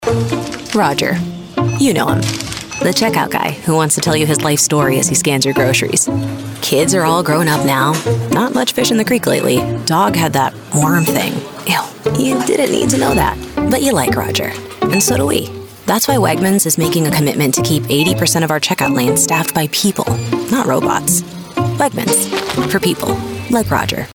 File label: Commercial Friendly Conversational; Wegmans